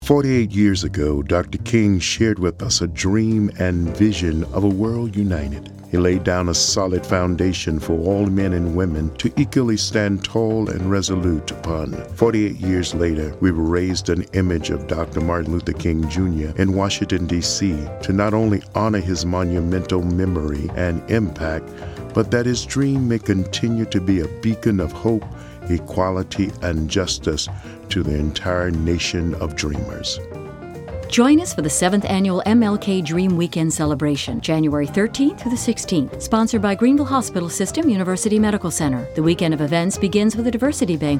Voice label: Radio Spot; MLK